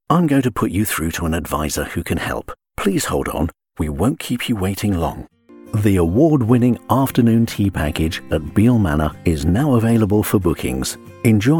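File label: promotional movie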